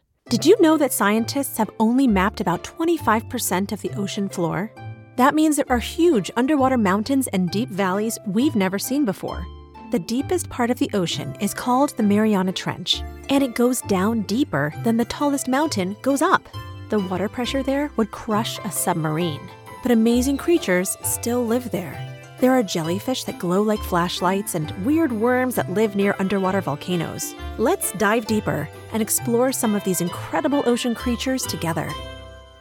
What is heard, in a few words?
elearning childrens